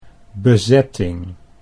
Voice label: "bezetting", Dutch

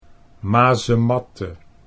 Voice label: "mazematte", Dutch

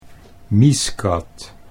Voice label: "mieskat", Dutch

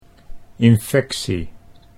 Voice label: "infectie", Dutch

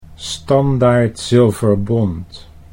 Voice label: "Standaard Zilverbont", Dutch

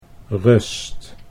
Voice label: "rust", Dutch

